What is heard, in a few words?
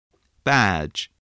"badge", english